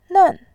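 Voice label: "nen", Mandarin, tone 4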